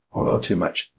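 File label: Voice Acting Spanish, male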